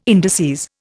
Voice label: "indices", Indian

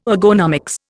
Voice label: "ergonomics", Indian

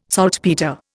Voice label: "saltpetre", Indian

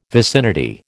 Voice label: "vicinity", American

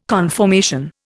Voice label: "conformation", Indian

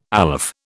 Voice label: "aleph", British

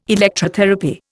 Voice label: "Electrotherapy", Indian

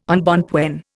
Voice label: "embonpoint", Indian

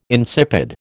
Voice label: "insipid", American

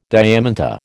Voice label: "diamant", American

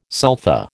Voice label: "sulfa", American

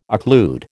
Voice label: "occlude", American

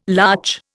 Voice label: "larch", Indian

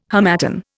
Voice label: "harmattan", Indian

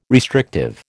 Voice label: "restrictive", American

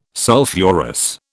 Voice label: "sulphurous", British

